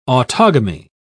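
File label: "autogamy", British English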